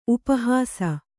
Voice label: "ಉಪಹಾಸಿ", Kannada